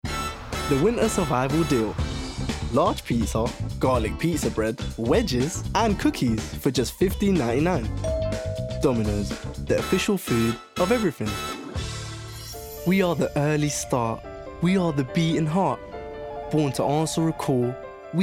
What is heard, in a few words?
Commercials Montage